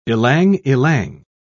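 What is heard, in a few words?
"ilang-ilang", English, British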